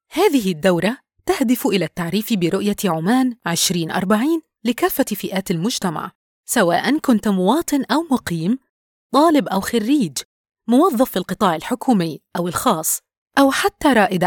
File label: E-Learning Online course